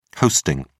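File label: "hosting", British English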